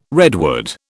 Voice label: "redwood", British

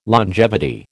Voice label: "longevity", American

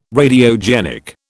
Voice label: "Radiogenic", British

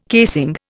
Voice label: "casing", Indian

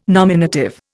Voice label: "nominative", Indian

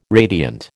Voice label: "radiant", American

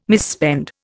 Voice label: "misspend", Indian

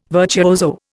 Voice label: "virtuoso", Indian

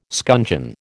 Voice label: "scuncheon", American

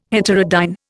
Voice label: "heterodyne", Indian

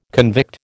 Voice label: "convict", American

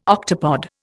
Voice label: "octopod", Indian

